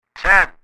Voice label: CB radio male voice says